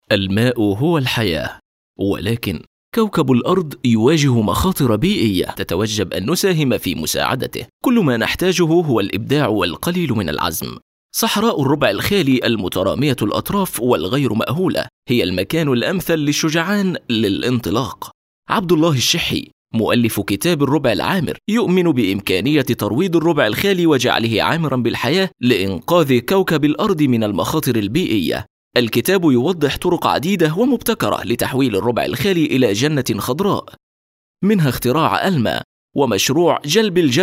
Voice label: Voice Over Arabic

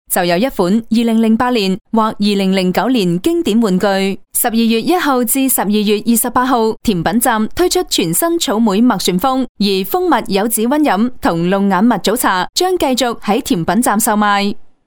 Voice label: Voice Chinese Female

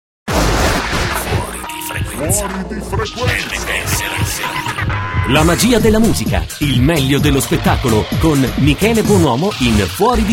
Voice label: Liner

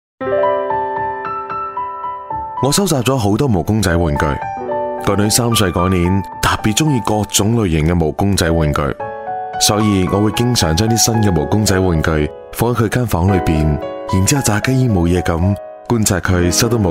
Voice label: Voice Chinese

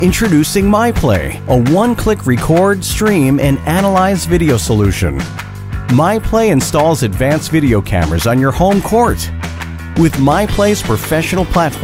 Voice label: American Voice Over; Decibel

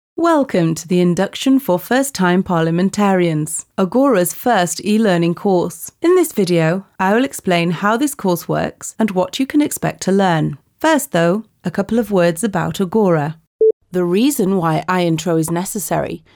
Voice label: Voice over English female

